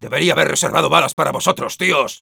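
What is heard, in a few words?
Sniper jeers, spanish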